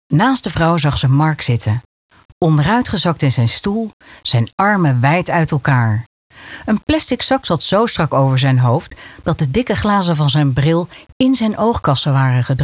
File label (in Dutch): Luisterboek thriller